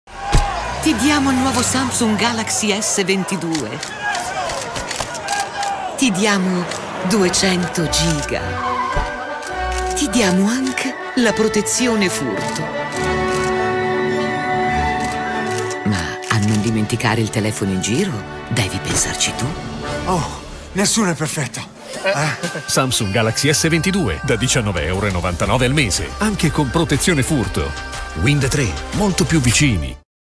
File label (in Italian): pubblicita spot; ti diamo il nuovo samsung s22; fiorello; windtre